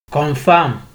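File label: "confam", Nigerian Pidgin, male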